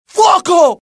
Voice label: alert, dialogue IT; mohaa; attack